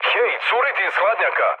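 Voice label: Serbian damaged water mood med; War Thunder; speech srb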